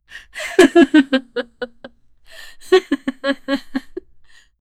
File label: a gentle warm and happy, adult